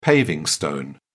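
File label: "paver", British English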